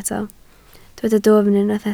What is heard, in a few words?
"dwfn", Welsh